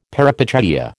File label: "peripeteia", American